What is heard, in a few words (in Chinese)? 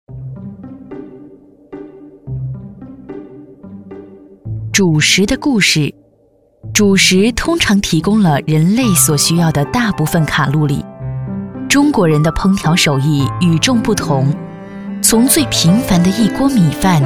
女国 纪录片 主食; 舌尖